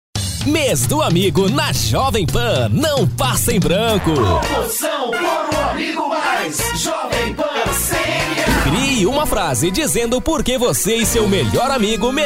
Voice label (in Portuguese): vox locutor